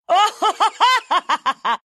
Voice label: laugh, ru; Luna; dota2